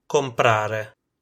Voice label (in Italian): "comprare", Italian